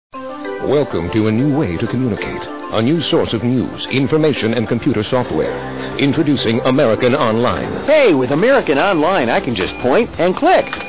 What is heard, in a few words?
Commercials; aol; Freekin Weird Audio